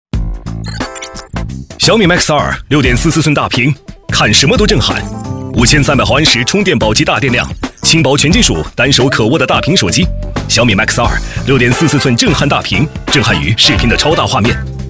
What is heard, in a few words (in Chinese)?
男; 飞碟说；小米MAX2